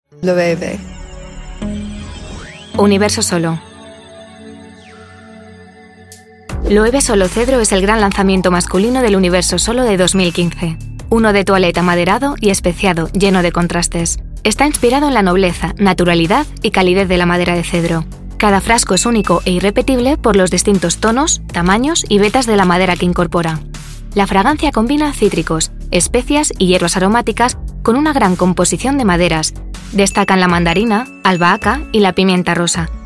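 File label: comercial, Spanish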